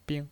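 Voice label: "bing", Mandarin Chinese, neutral tone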